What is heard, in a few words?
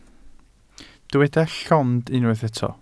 "llond", Welsh